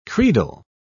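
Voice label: "credal", British English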